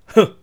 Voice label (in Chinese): 攻击, BattleCry; 南宫三八